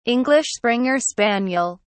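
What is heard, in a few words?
"english springer spaniel", British English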